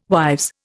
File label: "wives", Indian